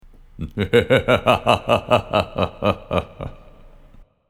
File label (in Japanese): 魔王の声; 魔王の笑い声[1]